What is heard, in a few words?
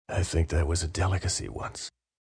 Delicacy Once, male; Talking